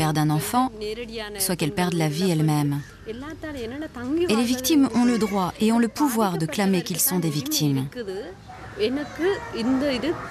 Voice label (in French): Voice Over, Femmes; Femmes de la Paix